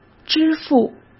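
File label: "支付" Mandarin Chinese; chinese flashcards; hsk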